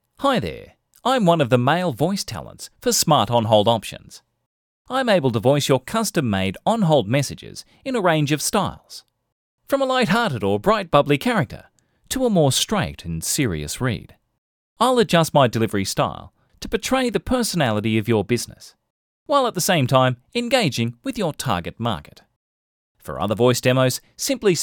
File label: MALE; Voice Over artists; Smart On Hold Messages